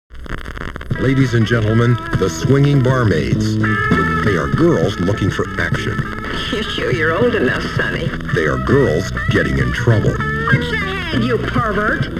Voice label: Movie Trailer; Swinging Barmaids

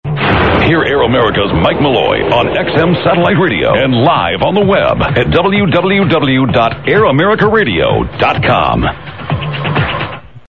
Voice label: Promo; AA-NYC; Listen To Malloy, But Not On WLIB

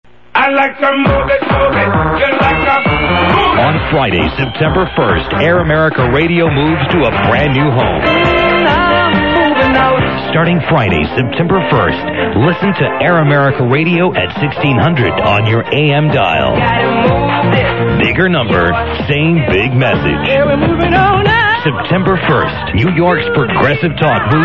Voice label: NYC Promo; Piece Of Pie

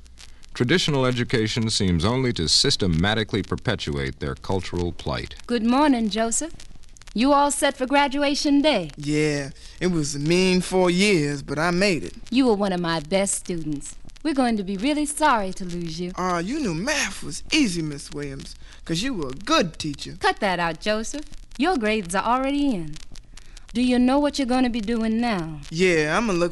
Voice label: dialect of the black american; western electric

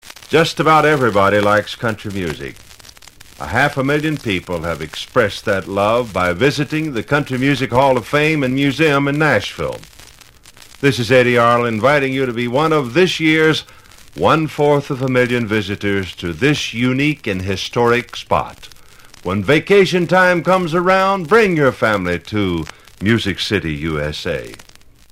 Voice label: Country Music Hall Of Fame Promo; Eddy Arnold

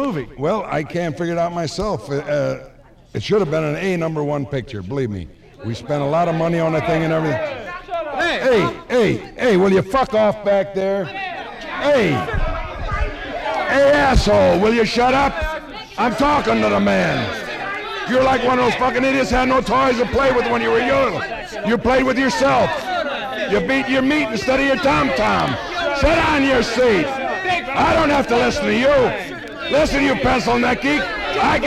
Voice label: interview; Fred Blassie; Mambo Remus

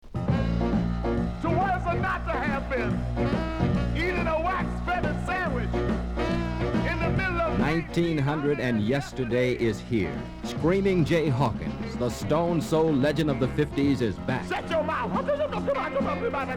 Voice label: Radio Spot; Screamin Jay

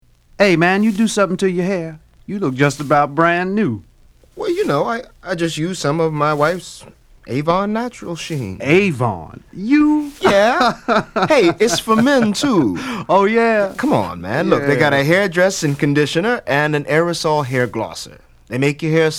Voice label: Radio Commercial; Avon; Natural Sheen; Man Talk

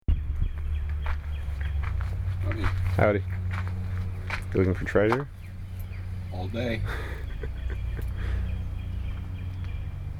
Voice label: conversation; battle of carthage

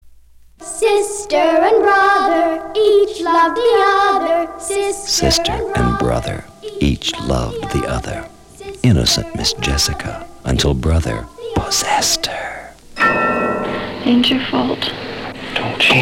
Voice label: Radio Spot; Miss Jessica Is Pregnant

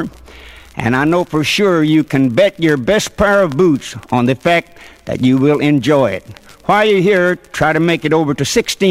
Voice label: Country Music Hall Of Fame Promo; Roy Acuff

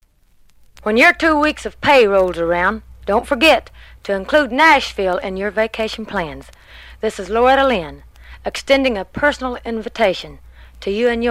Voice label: Country Music Hall Of Fame Promo; Loretta Lynn

